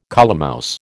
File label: "colemouse", American